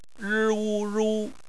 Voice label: "ru", Chinese phonetics